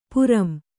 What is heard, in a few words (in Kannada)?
"ಪಾರಂ", kannada